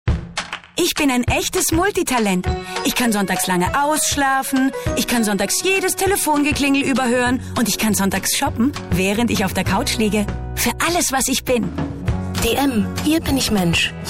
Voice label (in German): Frau OFF